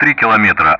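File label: Speech Sound Russian Common Player; DCS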